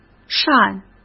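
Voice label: "善", Mandarin Chinese; chinese flashcards; hsk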